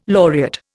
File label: "laureate", Indian